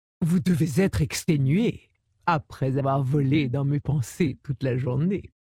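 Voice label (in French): French Female Flirt; Dracthyr; Vol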